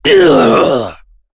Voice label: Vox Damage, en; KaselB; kingsraid

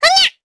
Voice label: Vox Damage jp; Estelle; kingsraid

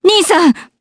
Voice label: Vox, jp; Ripine; kingsraid

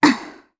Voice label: Landing, jp; Valance; kings raid

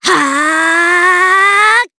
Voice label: Casting, jp; Cecilia; kingsraid

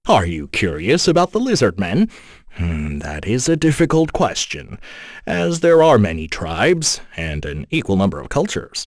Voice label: vox, en; kingsraid; voices heroes

